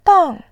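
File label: "dang", Mandarin Chinese, fourth tone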